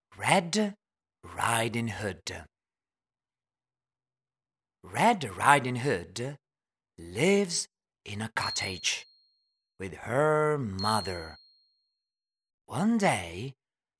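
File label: storytelling kids classics fairy tales; DICK WITTINGTON AND HIS CAT; THE LONG STORY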